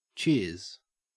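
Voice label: "cheers", Australian English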